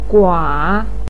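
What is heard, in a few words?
"gua" third tone, chinese, voice pinyin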